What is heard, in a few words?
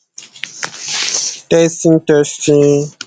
voice message